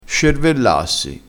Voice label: "scervellassi", dialettale

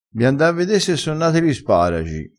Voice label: "da quer di", dialettale

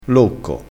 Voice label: "locco", crespinese, vocabolario dialettale

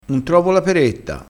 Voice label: "peretta", crespinese

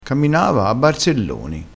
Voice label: "a barzelloni", Crespinese

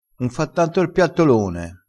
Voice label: "piattolone", crespinese

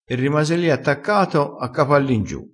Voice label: "a cap all ingiu", Crespinese

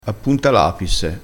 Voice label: "appuntalapisse", crespinese

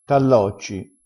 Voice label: "tallocci", crespinese

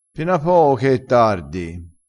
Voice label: "pena poo", crespinese

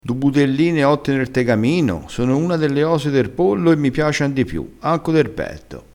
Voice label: "budelline", crespinese

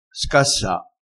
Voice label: "scassa", crespinese

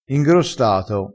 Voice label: "ingrostato", crespinese

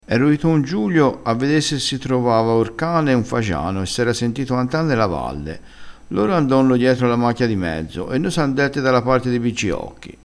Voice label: "ito", vocabolario dialettale

